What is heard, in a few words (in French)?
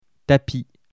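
"tapis" French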